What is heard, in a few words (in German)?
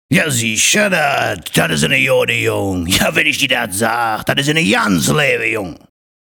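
Kölner, Dialekte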